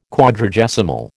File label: "Quadragesimal", American